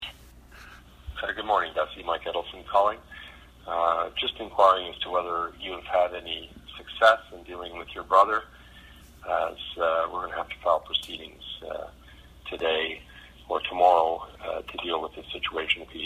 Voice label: voice mail; Ottawa criminal lawyer